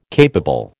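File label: "capable", American English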